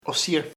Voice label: "both ambos", chamacoco

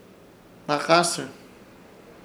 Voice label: "Hnakãsɨr" pasajero, Ishir Chamacoco

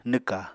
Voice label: "nwka", Apatani

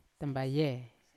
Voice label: "tambaye" self, Yawa

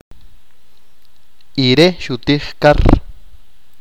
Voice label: he ate fish, achi

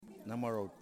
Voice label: "Man", Yimas

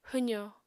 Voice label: "hwzò", Apatani

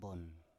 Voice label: "bon" Biak

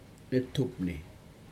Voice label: "netupni", June, Aneityum

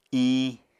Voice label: "iñ", apatani

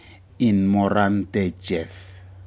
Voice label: fish coral Hind Grouper, Aneityum

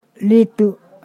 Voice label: "sit", Ponosakan

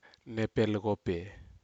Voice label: "nepelcopei", Aneityum; bushes

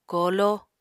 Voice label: "kooló", Apatani

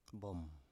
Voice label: "bom" Biak

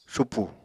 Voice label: "supù", Apatani

